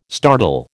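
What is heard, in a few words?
"startle", American English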